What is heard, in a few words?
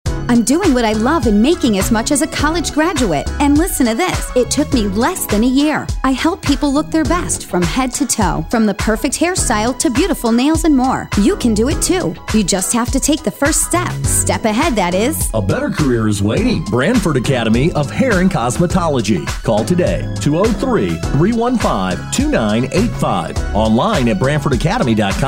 Radio Commercial